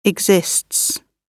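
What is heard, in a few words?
"exists", British English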